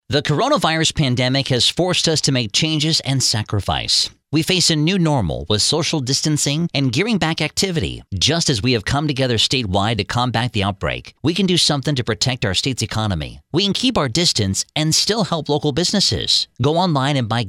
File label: MALE VO